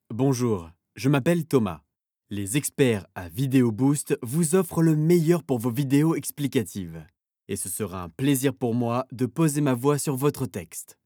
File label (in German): Franzoesisch, voiceover; internationale voiceover